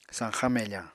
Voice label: "sañxañ mefañ", Apatani